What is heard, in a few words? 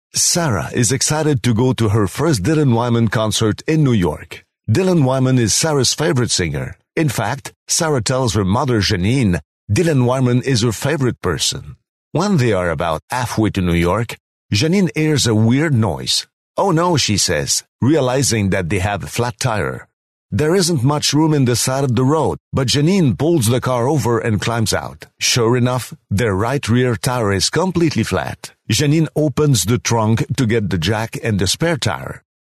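French, Canadian, male, English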